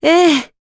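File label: Vox Aff Japanese; Maria; kingsraid; jp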